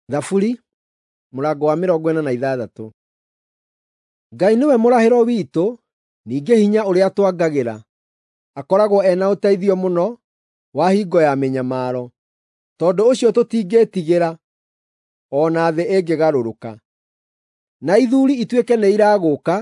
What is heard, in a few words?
psalms, gikuyu drama